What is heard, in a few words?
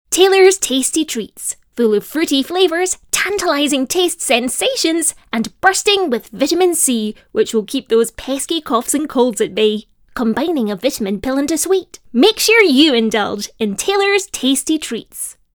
Commercial